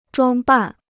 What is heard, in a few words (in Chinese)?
"装扮", Chinese